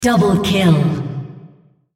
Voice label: female Double Kill

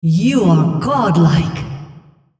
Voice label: female On Killing Spree

